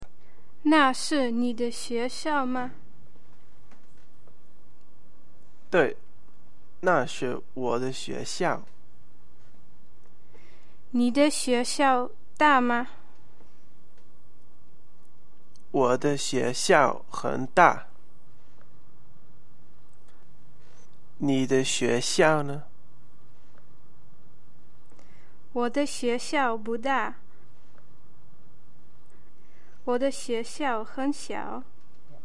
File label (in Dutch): Familie dialoog